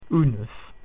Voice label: "one", Latin